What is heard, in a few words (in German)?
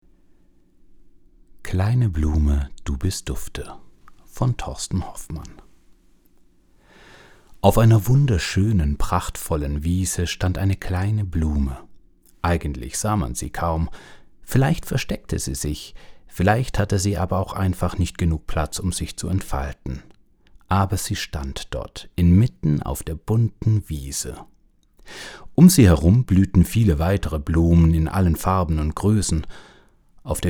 Leseprobe; Kleine Blume du bist dufte